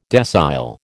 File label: "decile", American